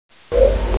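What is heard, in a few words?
"ping2", Mandarin Chinese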